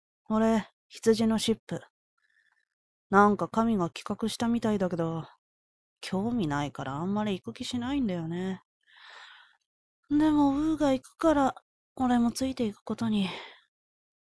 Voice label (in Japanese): ヤル気無し脱力系少年; 神のきまぐれ; シップ; 「俺、羊のシップ。なんか神が企画したみたいだけど、興味ないからあんまり行く気しないんだよね。